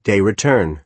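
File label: "day return", American English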